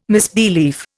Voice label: "misbelief", Indian